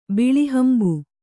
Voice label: "ಬಿಳಿಹಂಬು", Kannada

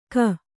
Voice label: "ಕ", Kannada

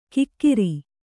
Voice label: "ಕಿಕ್ಕಿರಿ", Kannada

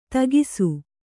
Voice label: "ಥಕಾಸು", Kannada

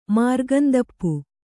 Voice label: "ಮಾರ್ಗಂದಪ್ಪು", Kannada

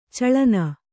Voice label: "ಚಲ್ಲೆಣ್ಣೆ", Kannada

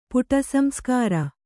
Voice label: "ಪುಟಸಂಸ್ಕಾರ", Kannada

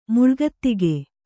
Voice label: "ಮುಳ್ಗತ್ತಿಗೆ", Kannada

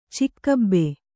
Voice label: "ಚಿಕ್ಕಬ್ಬೆ", Kannada

